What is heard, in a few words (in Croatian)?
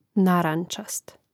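"narančast", Hrvatski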